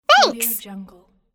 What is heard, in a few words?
cartoon girl "thanks"